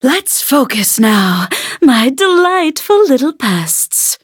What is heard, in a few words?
battle, en; Cookie Run